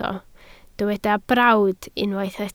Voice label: "brawd", Welsh

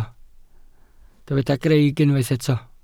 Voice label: "greic", Welsh

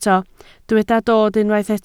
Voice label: "dod" Welsh

